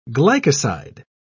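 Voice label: "glycoside", English, British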